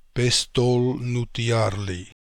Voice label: "Pestolnootiarly", pronounciations; Fourth Way; Beelzebub

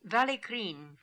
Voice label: "Vallikrin", pronounciations; Fourth Way; Beelzebub

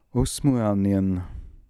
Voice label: "Osmooalnian", pronounciations; Fourth Way; Beelzebub

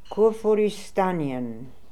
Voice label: "Koorfooristanian", pronounciations; Fourth Way; Beelzebub

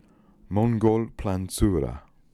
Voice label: "Mongolplanzura", pronounciations; Fourth Way; Beelzebub

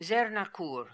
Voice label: "Zernakoor", pronounciations; Fourth Way; Beelzebub

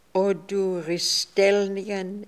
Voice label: "Oduristelnian", pronounciations; Fourth Way; Beelzebub

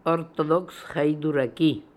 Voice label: "Orthodoxhydooraki", pronounciations; Fourth Way; Beelzebub pronounciations

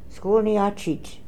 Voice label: "Skoolniatchit", pronounciations; Fourth Way; Beelzebub

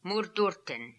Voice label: "Moordoorten", pronounciations; Fourth Way; Beelzebub

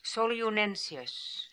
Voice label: "Solioonensius", pronounciations; Fourth Way; Beelzebub

